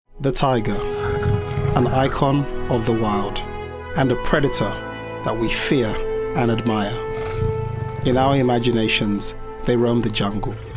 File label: Narrative